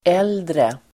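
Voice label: "äldre", Swedish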